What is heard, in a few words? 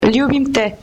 Slovenian